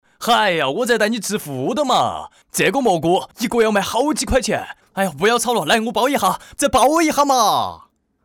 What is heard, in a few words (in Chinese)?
四川话角色卖蘑菇